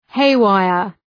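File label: "haywire", English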